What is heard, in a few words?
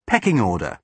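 "pecking order", British English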